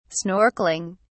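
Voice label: "snorkeling", British English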